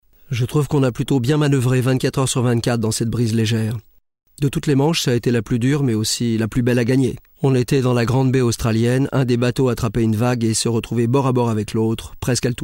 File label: DOC Voice Over